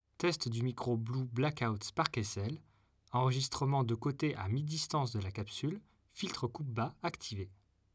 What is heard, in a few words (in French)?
Voix Filtre bas active De cote; SparkSL